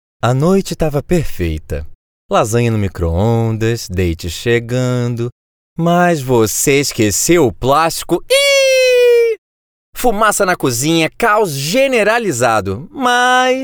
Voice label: Brazilian Portuguese